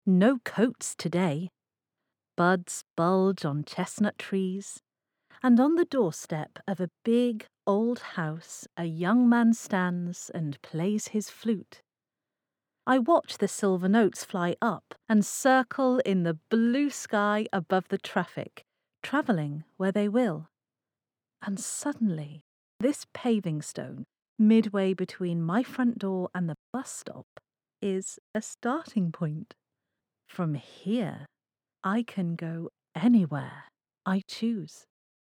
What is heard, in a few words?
optimistic